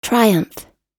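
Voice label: "triumph", English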